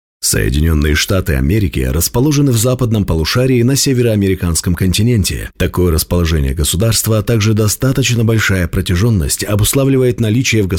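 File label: Russian Russia male narrative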